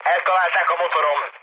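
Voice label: Hungarian damaged engine mood med, speech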